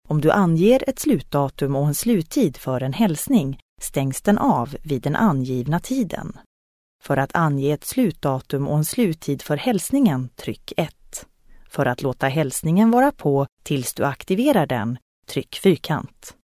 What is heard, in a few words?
sv ivr